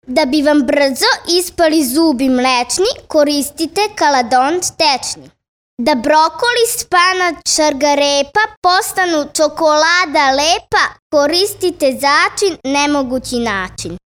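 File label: Serbian, 10 year old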